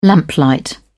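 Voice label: "lamplight", British English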